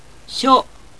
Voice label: "sho", Japanese